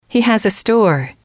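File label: He has a store, female, English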